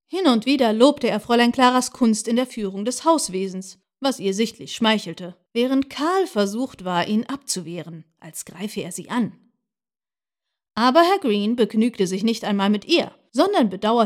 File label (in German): Hörbuch Roman